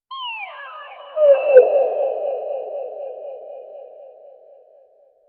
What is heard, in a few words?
a surprised wow sound followed by an echo